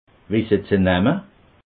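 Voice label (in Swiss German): "wisse", Alsatian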